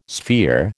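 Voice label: "sphere", American English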